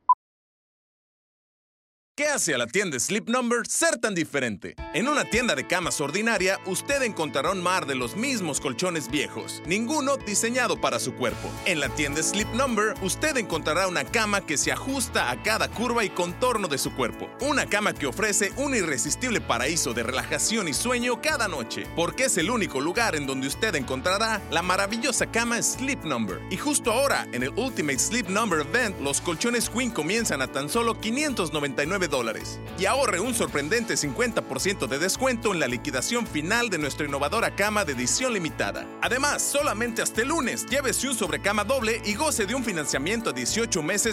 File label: Spanish